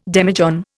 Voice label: "demijohn", Indian